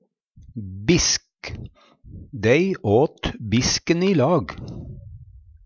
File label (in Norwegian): "bisken", Numedalsmål; Bisk (truleg av ty. Bisschen 'liten bit'), m.a.o. eit lite måltid